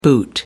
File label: "boot", English; clothes